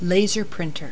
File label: "L printer", voices